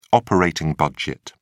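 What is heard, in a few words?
"operating budget", British English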